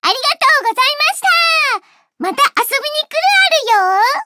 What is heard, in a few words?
victory jp